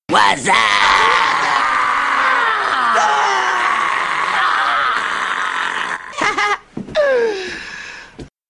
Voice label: wazzuuup, Message Tones